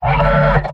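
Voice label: medic, robot; pyro; mvm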